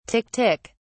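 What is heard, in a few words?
"tick tick", British English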